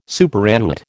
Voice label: "superannuate", American